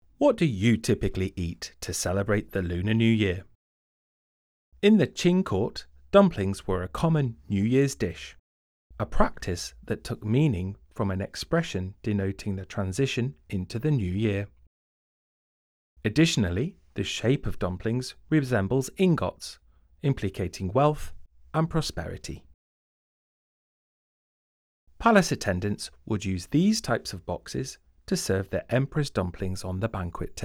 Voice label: Eng VO; audio guide